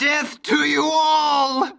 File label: Male; baldurs1